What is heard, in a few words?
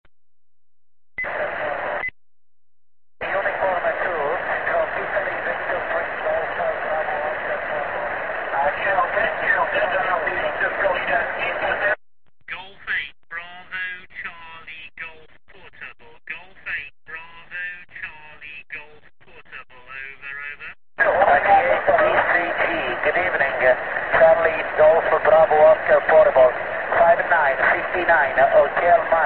qso